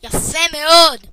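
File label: awesome, Finnish; gcompris-data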